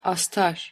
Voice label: pronunciation tr astar